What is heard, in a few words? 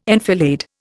"enfilade", Indian